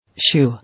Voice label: "Shoe", English